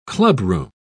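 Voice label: "clubroom", British English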